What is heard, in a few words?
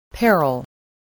"peril", English, voice